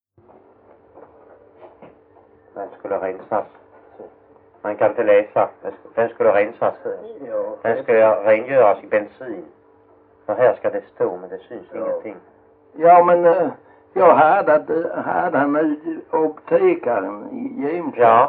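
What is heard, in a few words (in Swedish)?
Jämshög Blekinge, Svenska sagor dialekter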